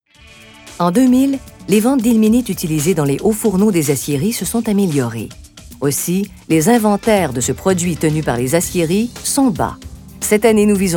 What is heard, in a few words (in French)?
Voix Narration